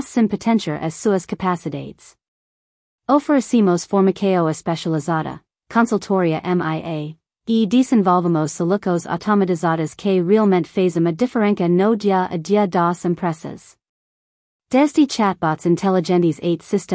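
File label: clever voice Portuguese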